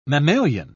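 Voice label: "mammalian", English, uk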